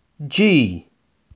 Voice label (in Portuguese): Alfabeto em Inglês Pronúncia a letra G